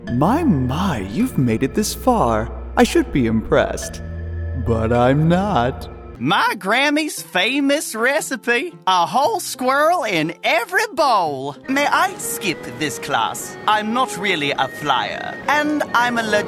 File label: Animation